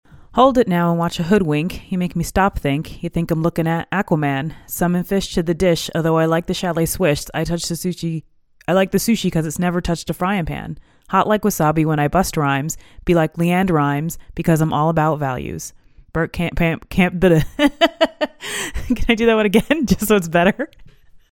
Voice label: AT2020USBPlus close distance, microphones